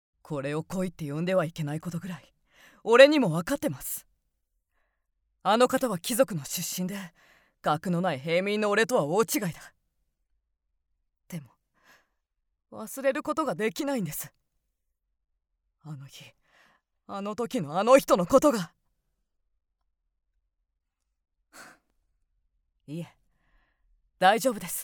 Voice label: man; 青年